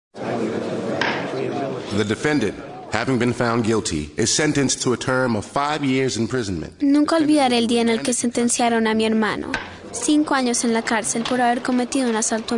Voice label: spanish